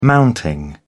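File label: "mounting", British English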